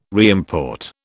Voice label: "reimport", British

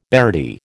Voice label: "beardie", American

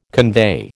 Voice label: "convey", American

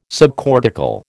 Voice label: "subcortical", American

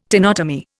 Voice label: "tenotomy", Indian

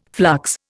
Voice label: "flux", Indian

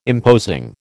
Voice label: "imposing", American

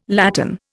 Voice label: "latten", Indian

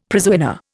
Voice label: "prizewinner", Indian; Dictionary

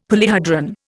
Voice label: "polyhedron", Indian; Dictionary

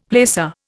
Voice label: "placer", Indian; Dictionary

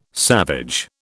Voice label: "savage", British

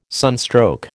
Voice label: "sunstroke", American

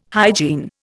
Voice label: "hygiene", Indian

